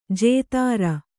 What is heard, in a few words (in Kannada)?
"ಜತ್ರು", kannada